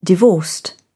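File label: "divorced", British English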